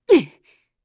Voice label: Vox Attack kr; heroes; Glenwys; kingsraid